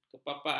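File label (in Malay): "kepapaan", Malay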